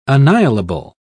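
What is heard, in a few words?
"annihilable", British English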